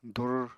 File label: "dur", Apatani